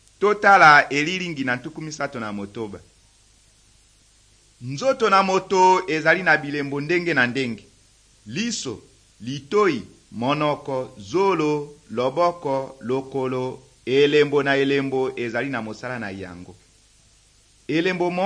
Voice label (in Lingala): good news lingala west, drama